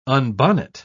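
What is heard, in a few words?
"unbonnet", British English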